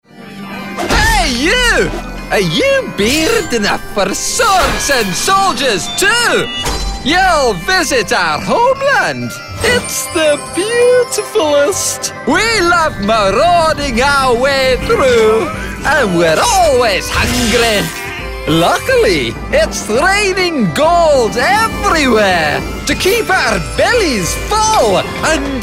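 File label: British character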